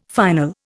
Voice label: "final", Indian